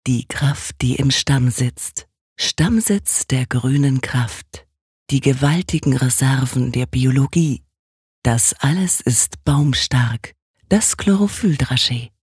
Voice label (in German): Werbung Sanft